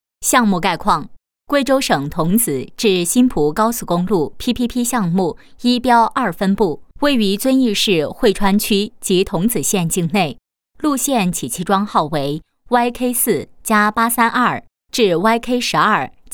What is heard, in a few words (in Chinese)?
女 汇报; 隧道桥梁工程概况